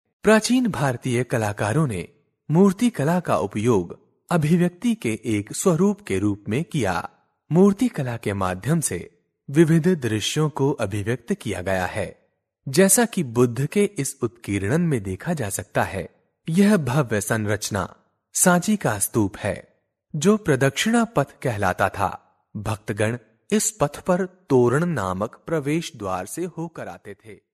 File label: male hindi voice over talent sales